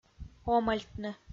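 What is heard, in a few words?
"омӧльтны" Komi-Zyrian, female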